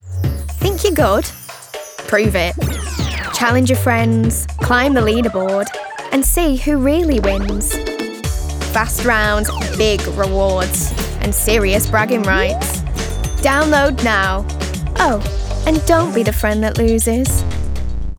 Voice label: Mobile Game, Manchester, Young, Exciting, Light, Upbeat, Friendly, Bubbly